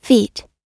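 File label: "feet", American English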